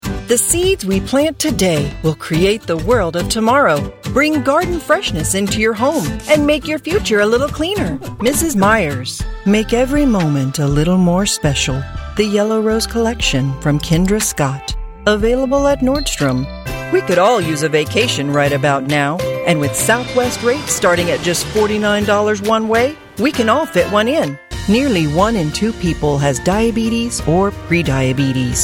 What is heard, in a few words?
Medical and Educational Narration Female Voice Over Talent